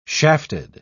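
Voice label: "shafted", British English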